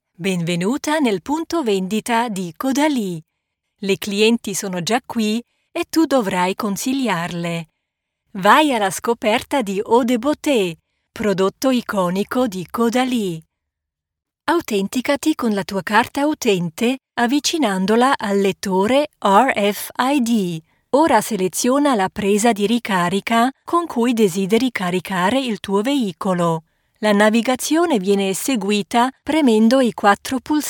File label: Italian E Learning